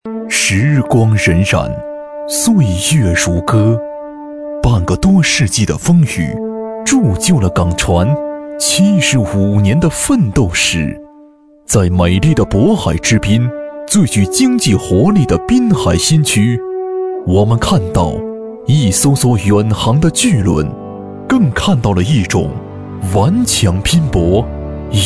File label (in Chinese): 男声; 滨海新区